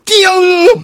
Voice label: engineer cheers